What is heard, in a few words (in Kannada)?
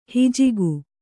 "ಹಿಜಿಗು", kannada